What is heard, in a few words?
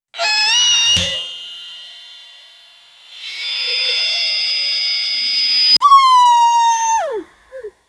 a little female robot say, uh oh